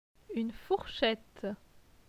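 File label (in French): "Une fourchette", French; phonetique; les consonnes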